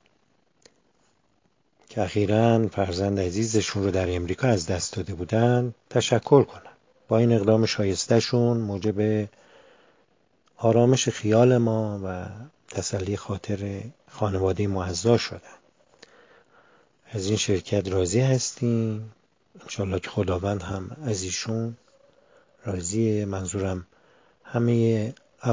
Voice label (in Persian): صدای مشتری رضایت از خرید تاج گل ترحیم حلقه ای ارسال به شیراز